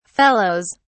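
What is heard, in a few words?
"fellows", British English, dictionary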